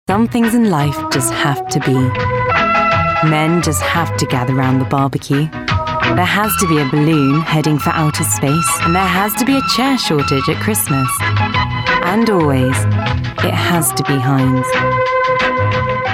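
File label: British TV commercial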